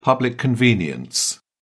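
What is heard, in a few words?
"public convenience", British English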